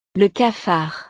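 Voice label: "le cafard", French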